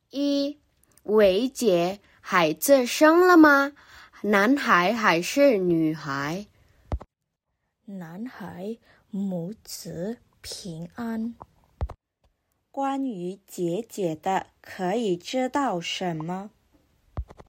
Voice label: Mandarin; mandarin placement test hsk5